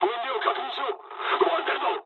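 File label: Japanese tank damaged mood high; War Thunder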